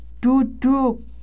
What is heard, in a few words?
"duduk", Indonesian